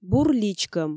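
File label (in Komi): бур личкӧм, Komi, female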